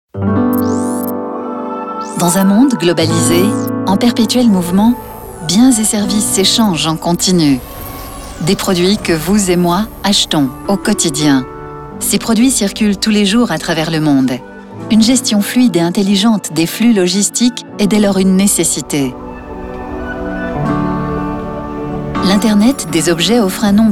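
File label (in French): film institutionnel, voix off femme; toyota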